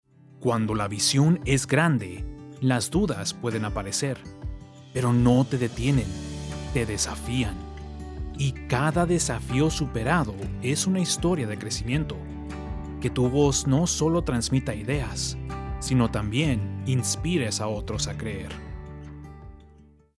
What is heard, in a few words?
Corporate Inspiration SPANISH